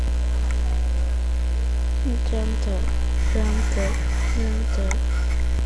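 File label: "gentle", spoken word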